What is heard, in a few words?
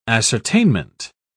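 "ascertainment", British English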